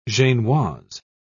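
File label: "genoise", British English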